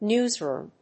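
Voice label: "newsroom", English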